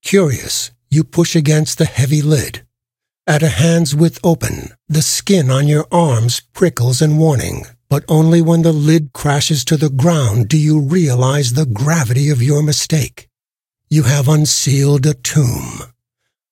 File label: narrator; Fate The Cursed King